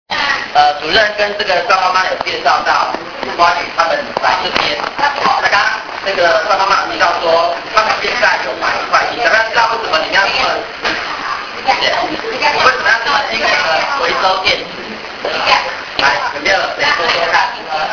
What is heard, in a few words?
book talking; 歸納及補充說明; 感動電池小天使關懷之旅錄音內容